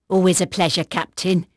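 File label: English female, voice; Pirates Of The Caribbean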